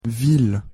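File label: pronunciation "ville", French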